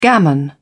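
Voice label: "gammon", English, British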